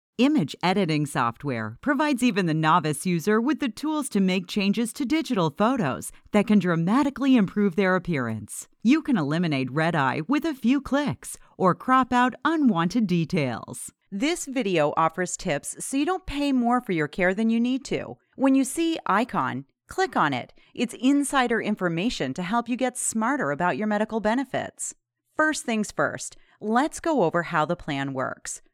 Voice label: Narration Video